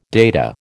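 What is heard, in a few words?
"data", American English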